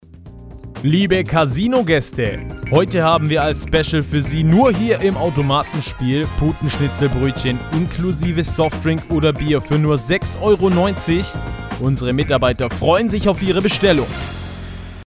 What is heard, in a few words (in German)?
Casino Werbung